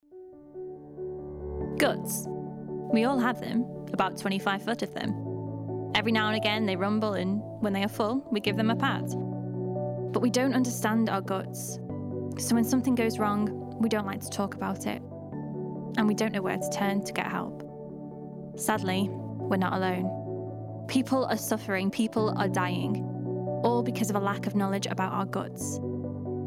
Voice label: Advert UK; Guts

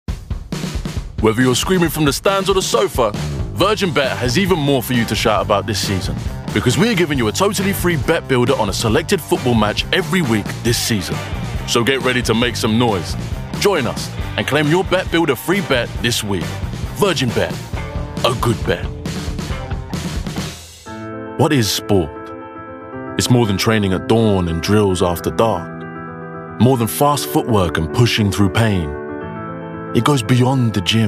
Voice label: Commercial

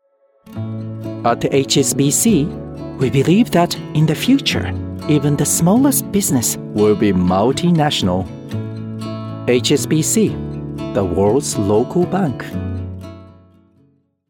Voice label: commercial ad English; HSBC

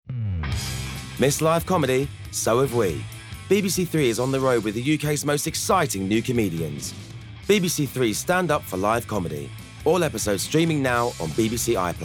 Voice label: PROMO

